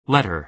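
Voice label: "letter", American English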